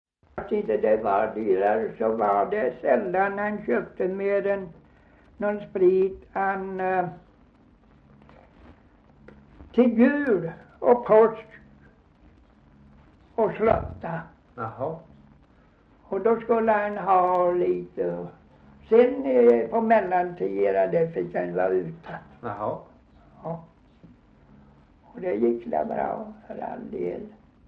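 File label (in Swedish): Gunnarp Halland, dialekter; Folkminnen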